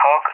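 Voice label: "Hawk", English, Spain; AirDefence; DCS; Allied Flight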